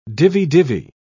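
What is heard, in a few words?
"divi-divi", British English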